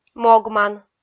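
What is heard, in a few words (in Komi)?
"могман", Komi, female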